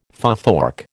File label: "futhorc", American